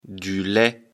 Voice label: "lait", lecture fr

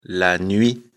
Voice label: "nuit", fr

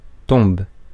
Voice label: "tombe", français

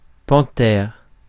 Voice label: "panthère", français

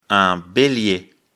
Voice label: "belier", lecture fr

